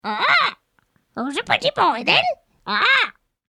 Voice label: Fake Parrot Pretty Boy; Bird Sound Effects